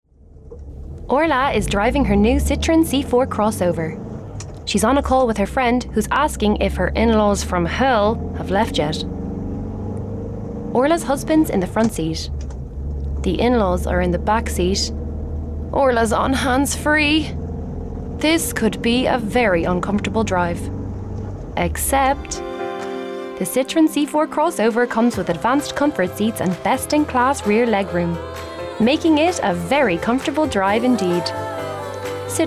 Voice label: commercial